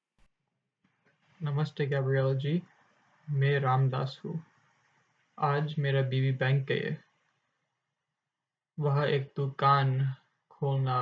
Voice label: husband call, Hindi; virtualhindi; family life